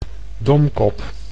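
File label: "domkop", Dutch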